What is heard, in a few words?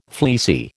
"fleecy", American